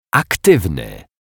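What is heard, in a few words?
"aktiwny", Polish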